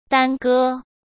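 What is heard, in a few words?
"耽搁", Mandarin Chinese; hsk; chinese-flashcards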